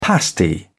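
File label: "pasty", British English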